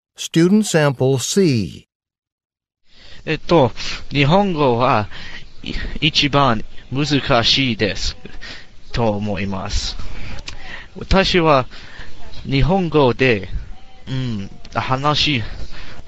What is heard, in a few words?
japanese conversation